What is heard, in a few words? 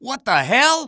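"what the hell", male; k lab; hl2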